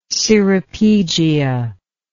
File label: "ceropegia", English